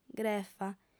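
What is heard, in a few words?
"grefa", Sardinian, female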